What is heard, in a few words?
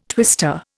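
"twister", Indian English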